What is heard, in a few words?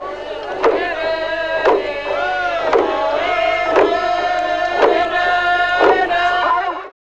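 voice, Foreigns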